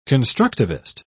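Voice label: "constructivist", English, British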